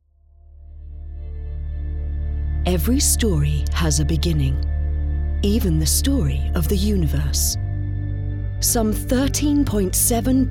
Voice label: Narration

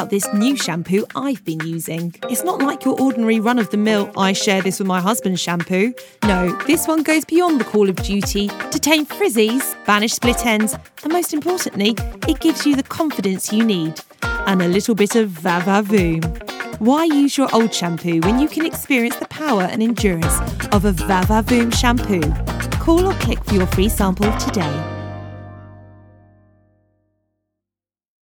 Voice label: hair advert

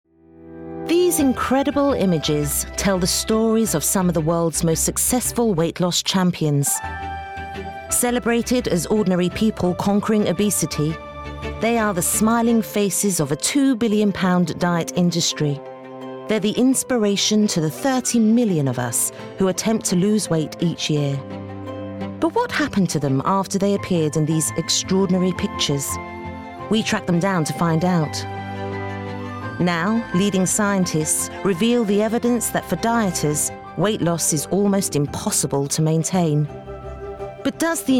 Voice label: documentary

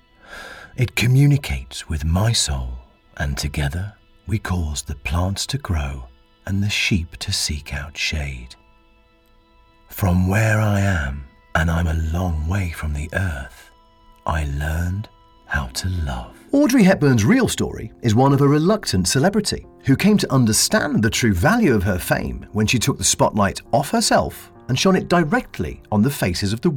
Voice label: Narrative

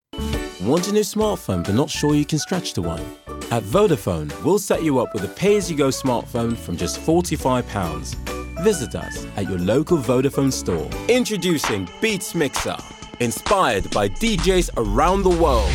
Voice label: Voice English

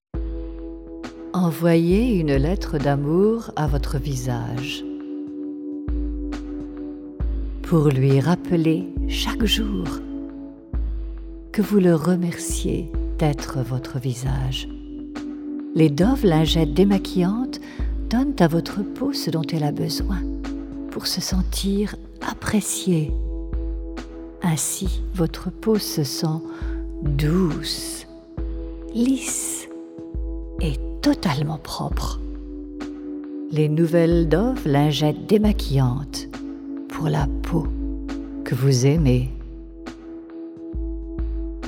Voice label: French

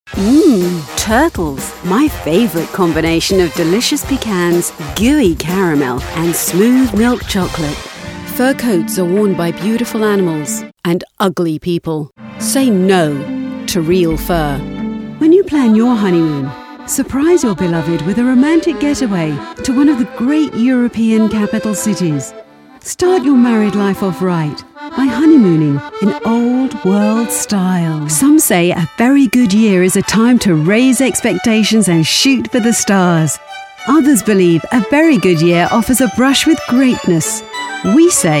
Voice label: Commercial

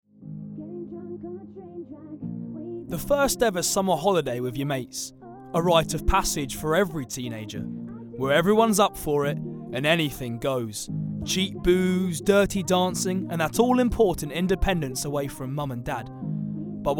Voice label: Narration

